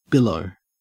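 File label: "billow", Australian English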